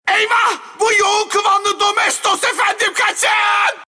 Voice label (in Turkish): animasyon